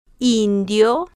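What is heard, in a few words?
"indio", Spanish, slow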